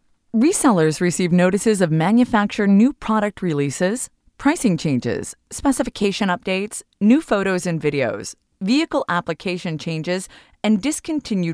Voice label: English American

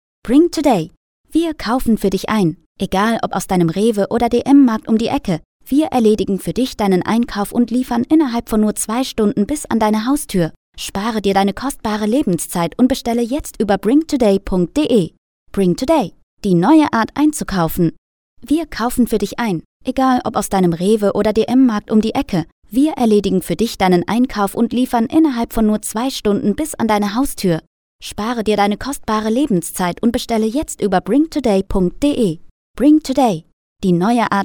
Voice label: German female

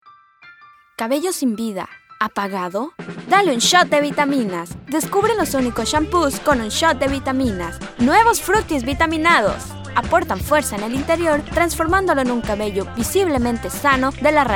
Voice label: Spanish Latin America

